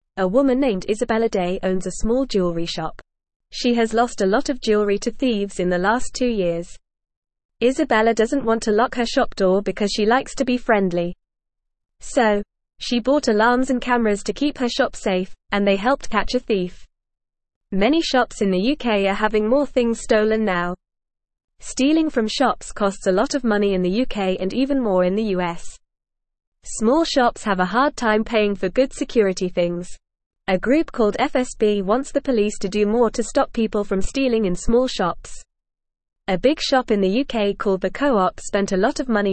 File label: English Lower Intermediate FAST Reading; Shop Owner Catches Thief with Special Camera